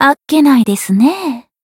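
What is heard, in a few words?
Voice finish, Japanese; hoozuki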